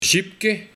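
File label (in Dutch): "Zjiepke", dialect; Snoep, sponzige gummy soort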